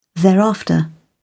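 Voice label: "thereafter", British English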